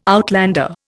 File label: "outlander", Indian